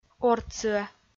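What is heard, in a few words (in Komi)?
"ортсыӧ", Komi, female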